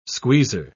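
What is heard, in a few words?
"squeezer", English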